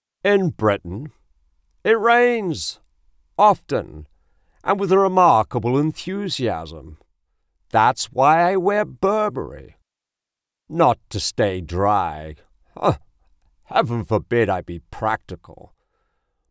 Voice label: Humor, Humorous Luxury Commercial, British Male Voice; Television Spots; Burberry